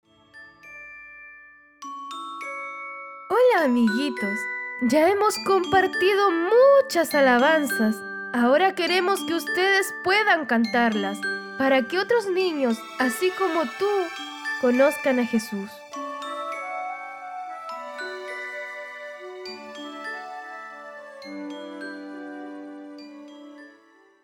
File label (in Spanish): Locucion, Pistas